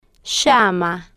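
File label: "llama", spanish, slow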